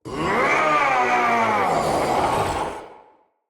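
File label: Battle Cry, Battle Barks, Dialog, English; Nimrod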